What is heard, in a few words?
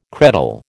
"credal", American